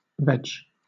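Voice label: "veg", British English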